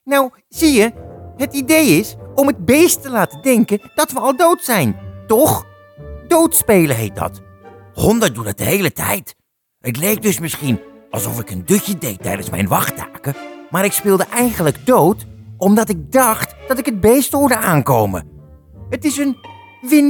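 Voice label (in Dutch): Karakter demo, animatie of cartoon; voice-over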